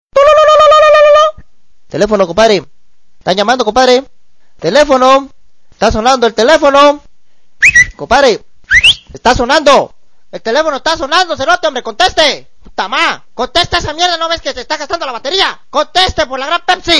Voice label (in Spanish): telefono